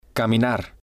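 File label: "caminar", Spanish, male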